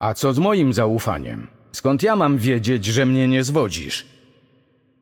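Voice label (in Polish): Intro, Polish; Yunara; bloom minigame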